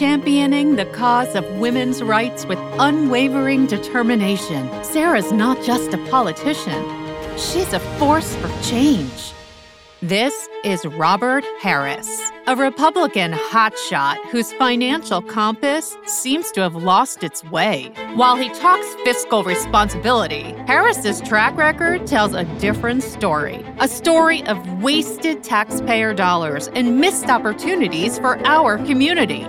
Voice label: Democrat Political Ads